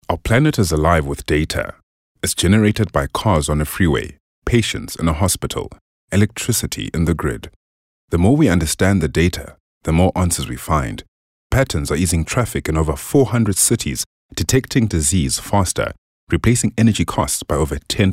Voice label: English Corporate